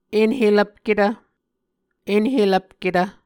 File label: "enhelvpketv", Muscogee